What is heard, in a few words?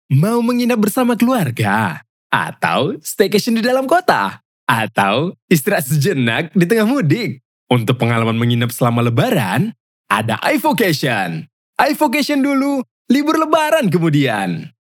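Indonesian CASUAL, medium to deep tone that is energetic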